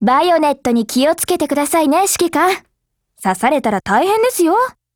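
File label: DIALOGUE, Japanese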